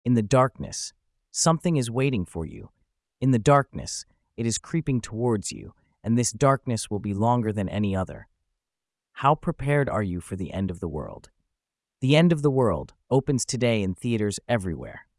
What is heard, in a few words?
AI Generated Voice